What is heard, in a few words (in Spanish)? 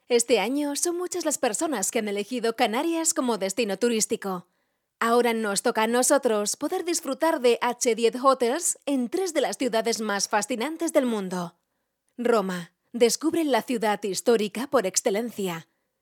castellana locutora